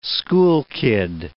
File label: "schoolkid", English